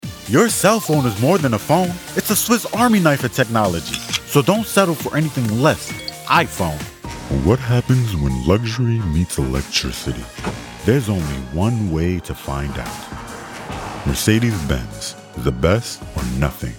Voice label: Male Prefessional Voice Talent With Range